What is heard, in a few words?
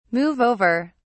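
"move over", British English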